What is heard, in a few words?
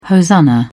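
"hosanna", British English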